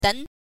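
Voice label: "den", Mandarin Chinese pinyin, second tone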